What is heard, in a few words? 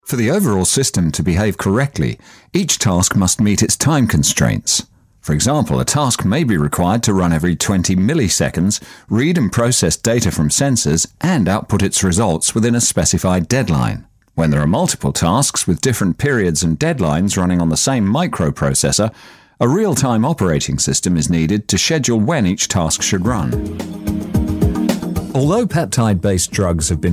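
tv narration and corporate, male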